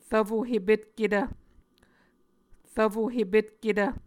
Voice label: "rvfo hepetketv", Muscogee

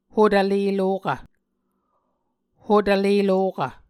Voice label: "hotvle lokv", Muscogee

